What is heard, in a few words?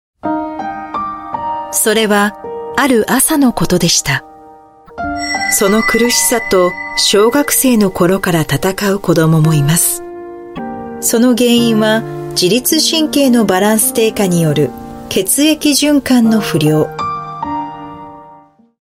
Japanese News Narration